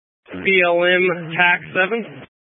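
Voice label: Incident Tactical 1, Scanner audio recording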